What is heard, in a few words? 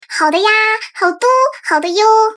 voice okay; PigeonMessage